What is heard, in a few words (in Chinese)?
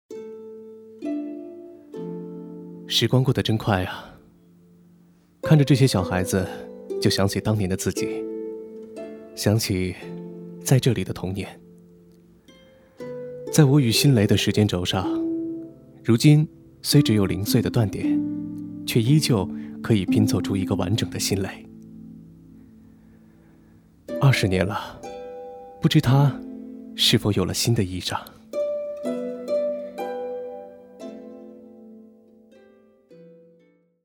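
男国大音-微电影-旁白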